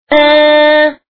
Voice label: "er" sound